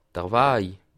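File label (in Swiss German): "waj", Bas Rhin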